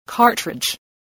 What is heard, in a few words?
"cartridge", spelling